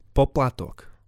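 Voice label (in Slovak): "poplatok", Slovak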